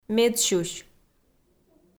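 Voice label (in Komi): "медсюсь", Komi, female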